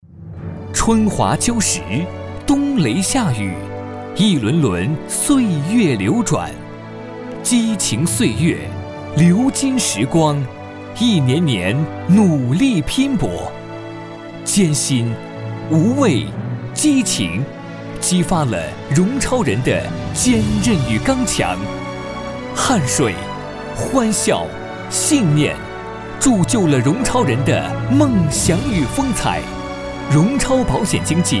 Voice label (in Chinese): 男 年轻专题片 保险; 融超保险